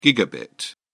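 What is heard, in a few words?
"gigabit", British English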